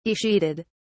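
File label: "escheated", British English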